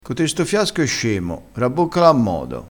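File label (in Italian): "scemo", dialettale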